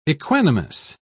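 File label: "equanimous", British English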